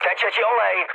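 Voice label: damaged oil, Czech, mood med; speech; War Thunder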